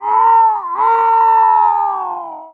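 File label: Pyro pain critical death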